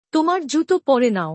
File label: Put on your shoes. - Bangla; Dress and Appearance